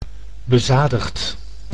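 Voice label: "bezadigd", Dutch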